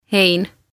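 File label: "Hein", Estonian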